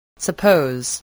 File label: "suppose", English